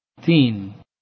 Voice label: Bengali